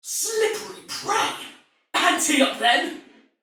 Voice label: Sight Lost Shout, Female, Soldier; Dialog; Barks; Battle; Sinecure